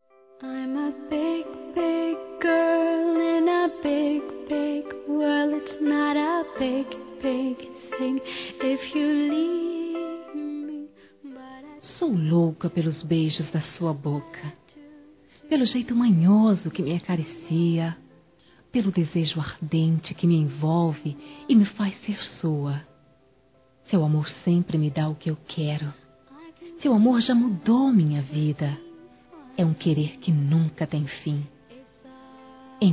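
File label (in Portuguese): Ciumes female; Telemensagens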